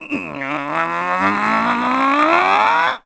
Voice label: Angry; Sturgeon getting angry at Orca; zelda sounds